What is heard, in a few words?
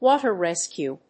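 "WATER RESCUE", English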